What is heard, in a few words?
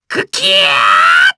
Vox Casting, jp; Lakrak; kingsraid